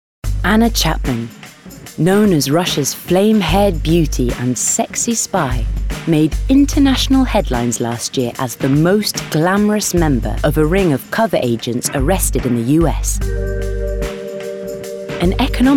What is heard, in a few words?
Documentary